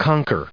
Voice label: "conquer", us

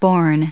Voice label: "born", en us

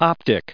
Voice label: optic, en us

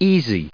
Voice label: "easy", us

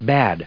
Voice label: "bad", en us

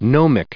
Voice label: "gnomic", us

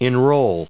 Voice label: "enrolled", us

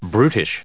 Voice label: "brutish", en us

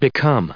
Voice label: "become", en us

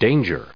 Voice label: "danger", en us

